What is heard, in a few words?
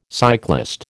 "cyclist", American